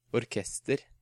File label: "Orkester", Norwegian